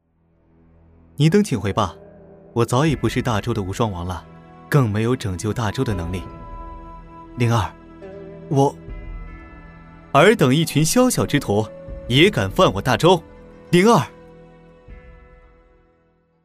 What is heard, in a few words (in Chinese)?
广播剧角色